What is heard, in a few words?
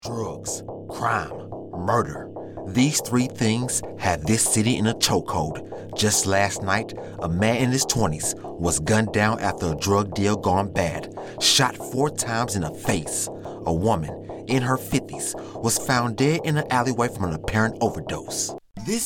Narration